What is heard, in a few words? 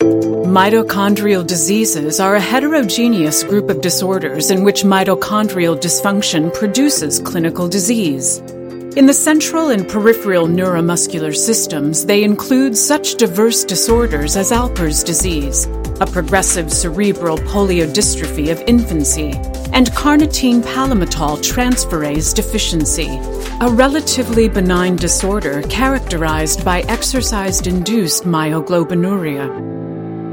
Medical Narration